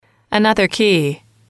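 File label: "another key", English, female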